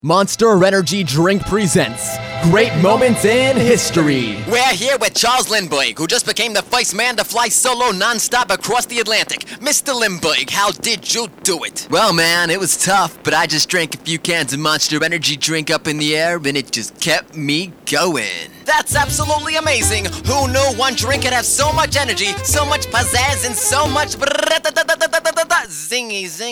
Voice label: commercial; Monster